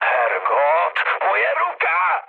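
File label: damaged pilot, Czech, mood med; War Thunder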